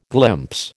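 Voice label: "glimpse", American English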